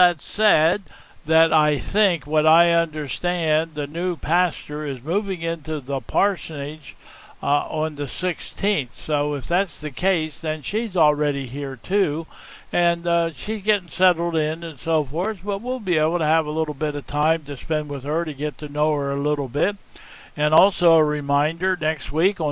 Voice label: Welcome and Announcements